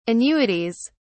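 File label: "annuities", British English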